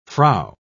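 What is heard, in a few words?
"frow", British English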